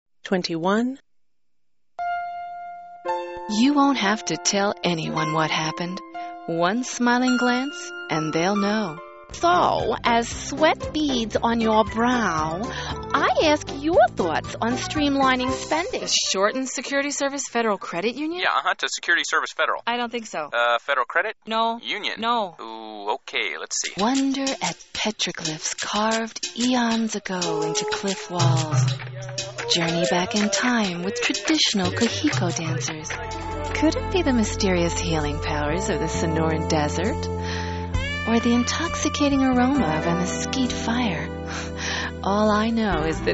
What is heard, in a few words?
voiceover male